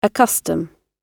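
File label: "accustom" British English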